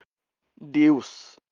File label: voice message